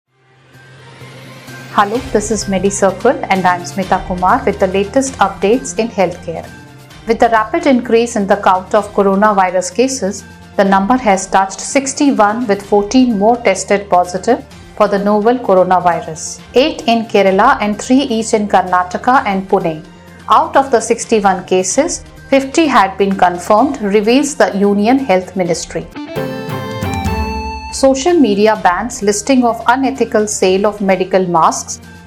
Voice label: english news